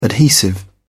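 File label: "adhesive", British English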